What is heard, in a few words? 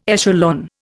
"echelon", Indian